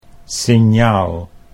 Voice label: "signaal", Dutch

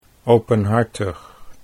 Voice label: "openhartig", Dutch

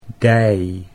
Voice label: "dij", Dutch; 'thigh'

